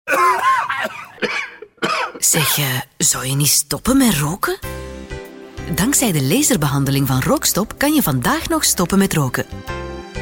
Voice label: RADIO SPOT